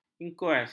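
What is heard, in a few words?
"inkues", Malay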